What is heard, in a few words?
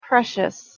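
"precious", English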